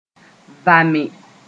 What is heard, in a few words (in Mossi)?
"vame", moore